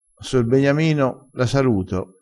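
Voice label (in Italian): "sor", vocabolario dialettale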